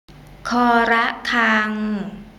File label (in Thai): "ฆ ระฆัง", Thai